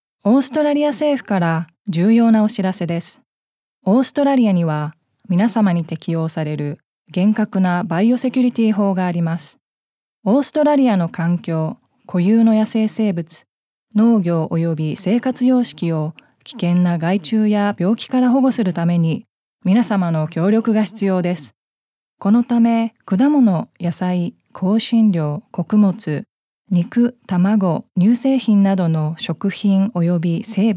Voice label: incoming passenger announcement japanese; Passenger announcement - Japanese 2021; The Department of Agriculture, Fisheries and Forestry; aircraft